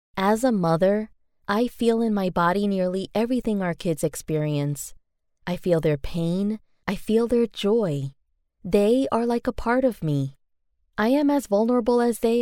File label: Her Voice; King; king archetype